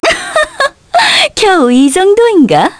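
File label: Vox Victory, Korean; Maria; kingsraid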